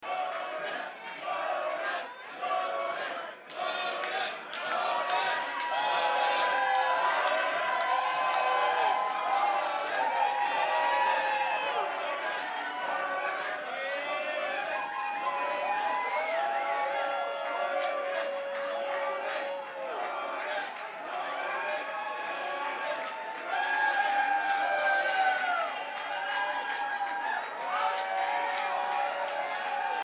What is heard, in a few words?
Banter Crowd